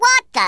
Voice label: "TAKE COVER", Australian; Worms Armageddon